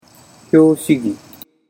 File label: "hyoshigi", Japanese